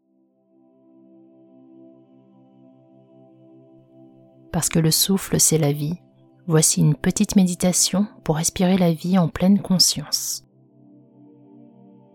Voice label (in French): Meditation paix interieure